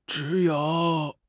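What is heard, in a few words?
"zhi3 you3", Chinese; HSK3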